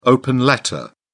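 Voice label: "open letter", British English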